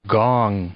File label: "gong", English; noun